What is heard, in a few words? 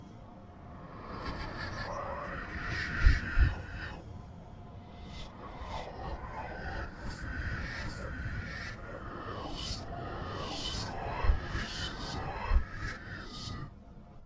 Whisper